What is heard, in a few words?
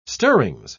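"stirrings", English, British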